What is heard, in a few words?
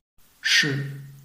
"shi5", Chinese